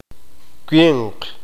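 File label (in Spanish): "el hombre varón adulto", qeqchi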